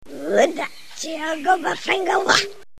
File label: Ewok Talking; Star Wars